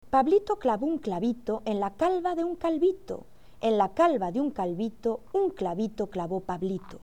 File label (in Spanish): "clavo", fonetica